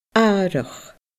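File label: "arach", Scottish Gaelic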